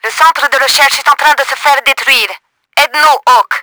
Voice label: RESEARCH HIT, french; Battle Engine Aquila; XBOX

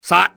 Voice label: kill him, enemy cantonese male; XBOX

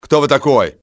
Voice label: who are you, russian soldier; XBOX

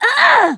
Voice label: COMM KID M ATK; XBOX

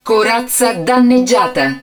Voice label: HUD Armour Low, Italian; XBOX; Battle Engine Aquila

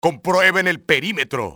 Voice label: check peri, spanish soldier; enemy; XBOX

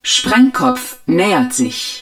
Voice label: HUD incoming warhead, german

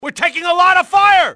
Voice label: under fire, english male; XBOX; enemy

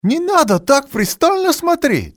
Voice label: "must stare", male; XBOX; civ

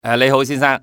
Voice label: goodday sir, male; XBOX; civ

